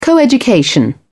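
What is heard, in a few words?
"coeducation", English, British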